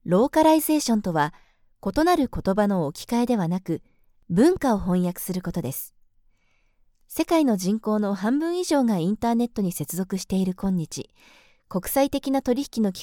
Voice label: japanese female